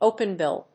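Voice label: "openbill", English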